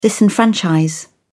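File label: "disenfranchise", British English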